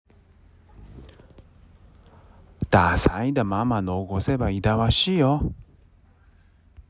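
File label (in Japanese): 津軽方言 例文, ださいだ まま のごせば いだわし よ; （出されたご飯を残すのは勿体無いよ）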